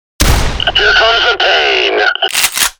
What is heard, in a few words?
Here Comes the Pain! (text message tone); Payday The Heist; Bulldozer